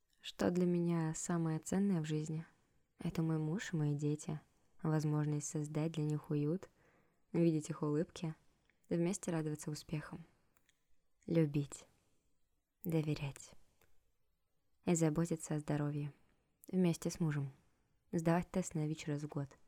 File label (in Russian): Реклама против СПИДа